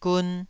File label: "gun2", taiwanese syllable